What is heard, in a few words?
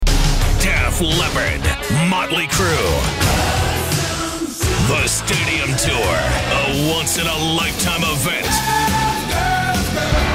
promo men concert